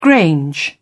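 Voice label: "grange", English, British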